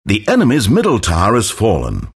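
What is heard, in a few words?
announcer tower fall enemy mid; Dota 2; stanleyparable announcer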